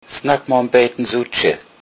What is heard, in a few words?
slowly, low saxon